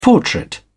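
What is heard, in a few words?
"portrait", British English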